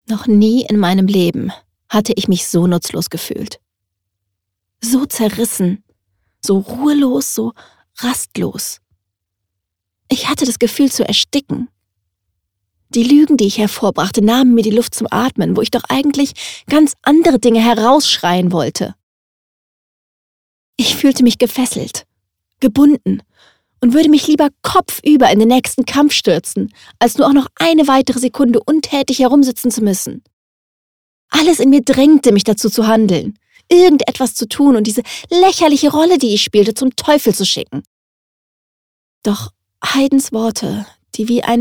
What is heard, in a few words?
DE voiceover; Emmerdale